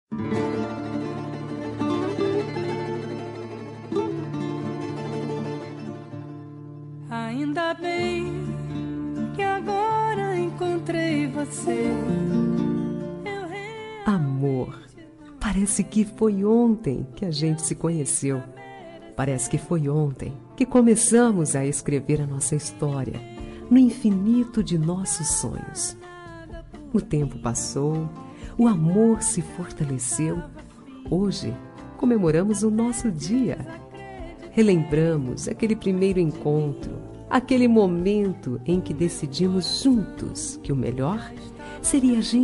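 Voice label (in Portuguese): aniver namorado fem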